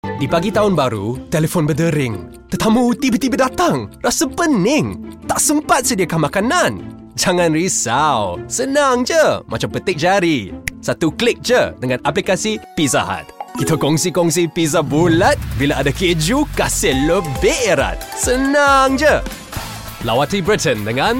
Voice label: Malay Reel; Gatoraid